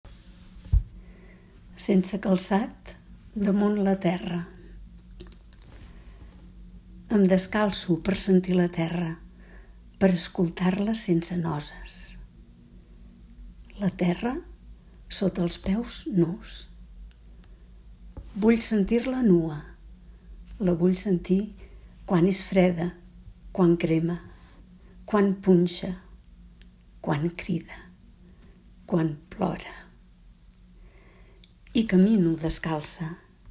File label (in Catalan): Recitat poema; sense calcat damunt la terra